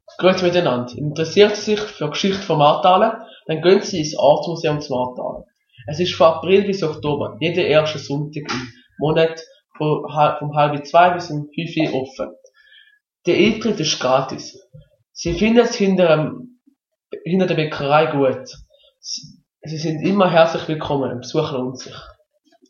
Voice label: Werbung; Ortsmuseum Marthalen

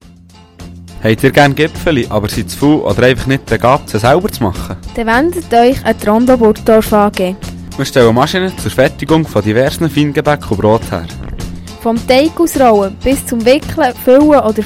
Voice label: Jingle; Rondo Burgdorf AG

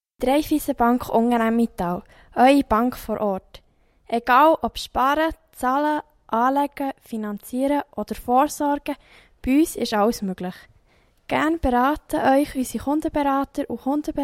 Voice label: Jingle; Raiffeisenbank Unteremmental

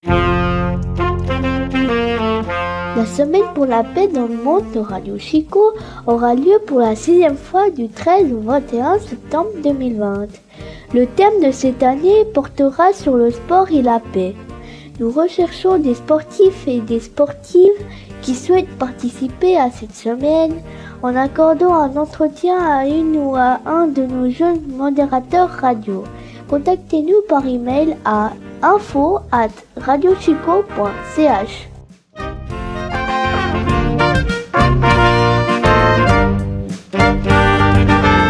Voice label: jingle, franzoesisch

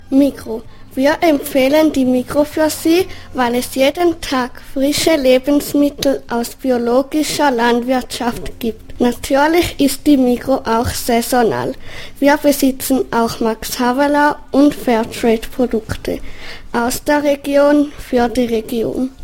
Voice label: Werbung Migros; Sirnach

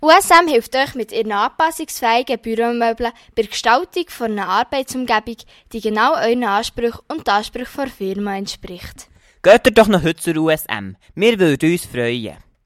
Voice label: Werbespot; USM; Muensingen

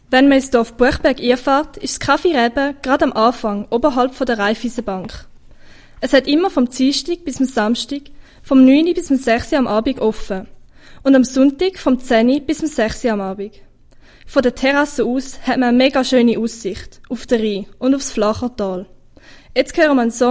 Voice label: Werbung; Cafe Reben; OS Rüdlingen

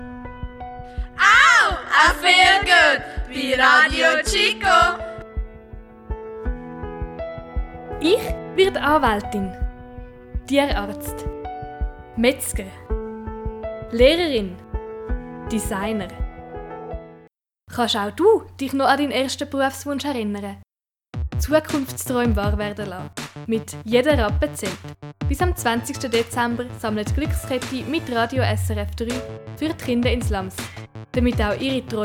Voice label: Jingle; Glückskette

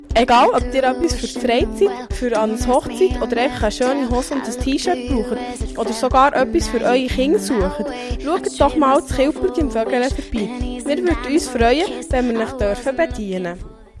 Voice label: Werbespot; Vögele

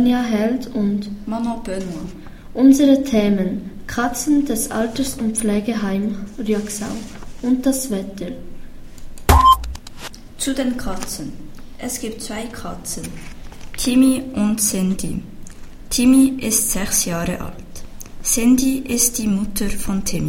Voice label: Nachrichten; Rüegsau

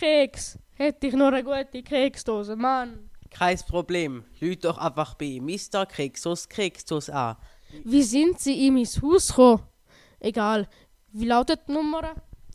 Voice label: Fake Werbung; Fake Werbung von der Klasse 1A; Marthalen

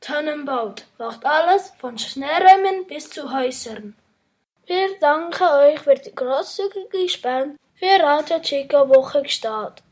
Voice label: Jingle - Bau; Thoenen Bau

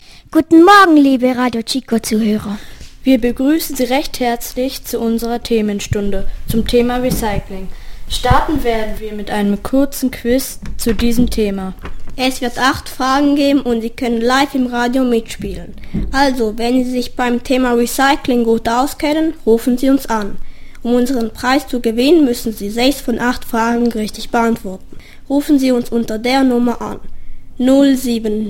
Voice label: Begruessung Donnerstag Quizansage; Sirnach

